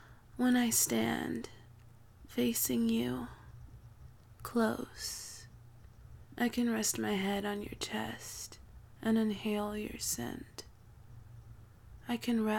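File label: [F4M] Up [Erotic Poetry][Romantic], female; You're Taller Than Me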